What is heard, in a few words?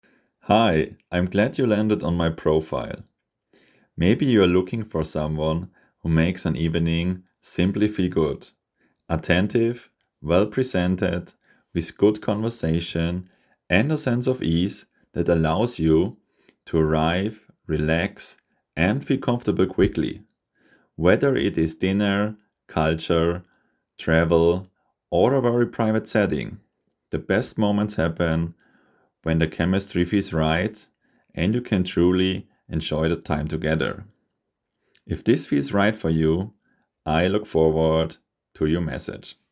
Escort Mann Stimmenintro Englisch; male-escort